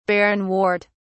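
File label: "barrenwort", British English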